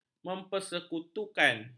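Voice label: "mempersekutukan", Malay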